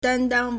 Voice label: "dan1 dang1", Chinese

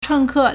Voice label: "cheng2ke4", Chinese

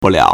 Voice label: "bu4liao3", Chinese

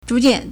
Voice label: "zhu2 jian4", Chinese

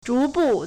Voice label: "zhu2 bu4", Chinese

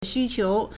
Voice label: "xu1qiu2", Chinese

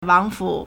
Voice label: "wang2fu3", Chinese

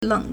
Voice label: "leng3", Chinese

